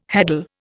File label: "heddle", Indian